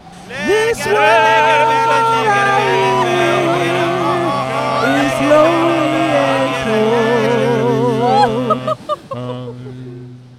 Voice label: individual voices